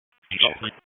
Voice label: PS TAC, p25

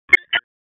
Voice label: Police Tac, p25; wake p25

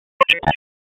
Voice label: Police Northeast District Tac; Raleigh; Tac 1; wake; p25

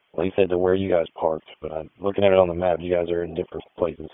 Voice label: PS TAC, p25

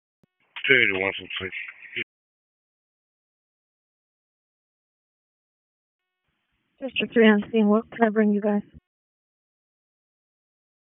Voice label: PS TAC, p25; wake

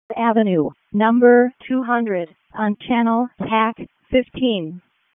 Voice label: EMS ALERT; wake

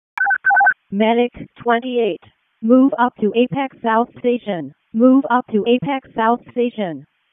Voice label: WC EMS ALERT, p25; wake p25

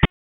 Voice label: Police District Tac, p25; wake p25

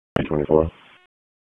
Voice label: Police Northeast District Disp, p25; Raleigh; wake

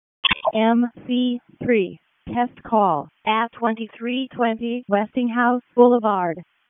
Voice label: WC EMS ALERT, p25; wake

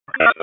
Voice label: Police Northwest District Tac 1; Raleigh; p25; wake

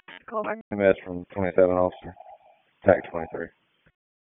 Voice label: PS TAC, p25; wake

